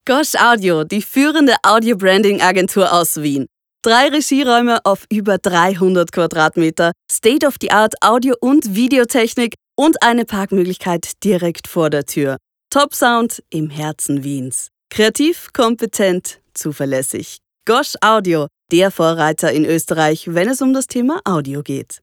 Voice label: Plakativ

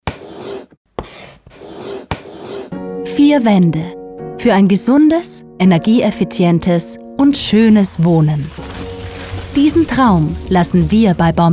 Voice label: Imagefilm; Baumit

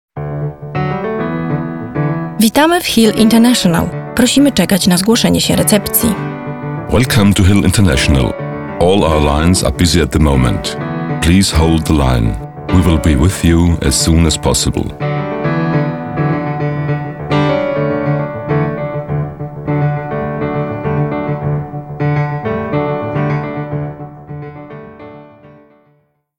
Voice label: POLNISCH

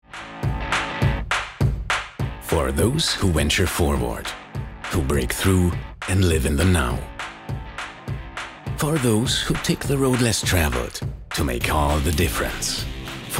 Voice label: Hörprobe englisch; RBM

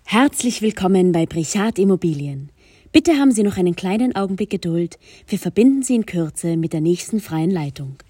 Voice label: Telefon Warteschleife

